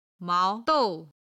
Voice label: "mao2 dou4", Chinese

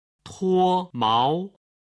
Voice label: "tuo mao", Chinese

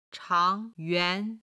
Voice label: "chang2 yuan2", Chinese

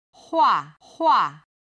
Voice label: "huahua", Chinese

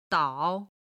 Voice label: "dao3", Chinese

